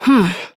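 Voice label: Voice, English; New War; Operator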